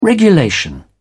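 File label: "regulation", British English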